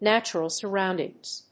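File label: "natural surroundings", English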